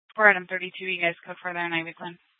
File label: Mesa PD Central Dispatch, scanner